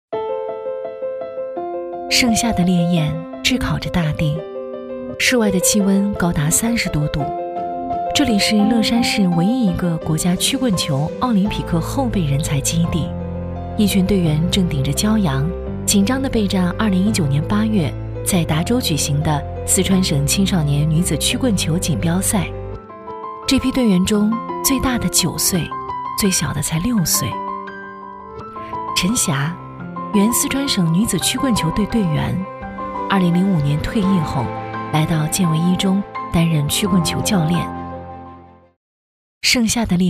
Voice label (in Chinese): 女 微电影